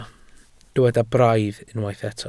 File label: "braidd", Welsh